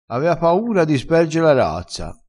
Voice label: "sperge", vocabolario dialettale crespinese

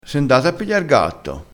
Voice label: "e certo", crespinese

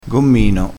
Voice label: "gommino", crespinese

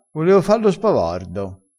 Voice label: "spavardo", crespinese

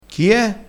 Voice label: "chie", crespinese

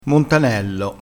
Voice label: "montanello", crespinese

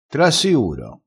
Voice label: "assiura", crespinese

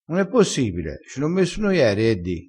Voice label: "che di", crespinese

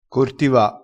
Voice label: "cortiva", crespinese

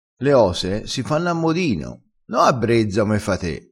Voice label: "a brezza", Crespinese

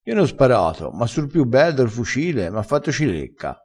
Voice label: "fa cilecca", vocabolario dialettale

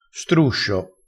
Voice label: "struscio", crespinese

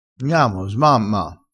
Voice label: "smamma", crespinese

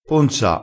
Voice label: "ponza", crespinese

